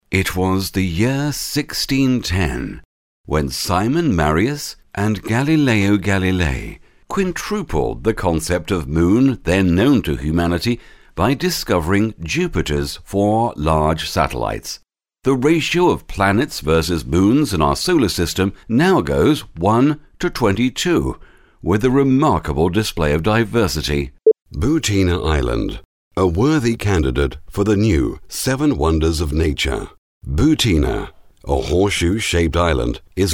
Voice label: DOCUMENTARY VOICEOVERS